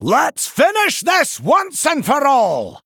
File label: Male Dwarf Bark; Brann Bronzebeard